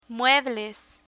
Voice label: "muebles", Spanish; learn spanish

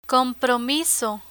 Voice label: "compromiso", Spanish; financial administration; learn spanish

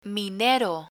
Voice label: "minero", Spanish; learn spanish

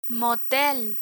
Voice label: "motel" Spanish; hotel features; learn spanish

